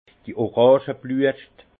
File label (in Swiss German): "orangebluescht", Alsace; vin; fleurs d’oranger